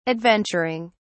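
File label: "adventuring", British English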